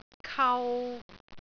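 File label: "kau3", Cantonese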